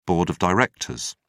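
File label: "board of directors", British English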